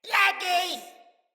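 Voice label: Attack Corrupted Sprouts, en; Battle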